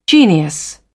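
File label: "genius", British English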